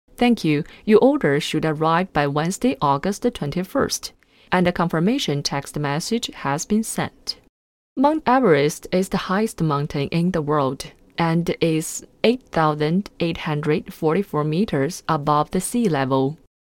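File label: Chinese, English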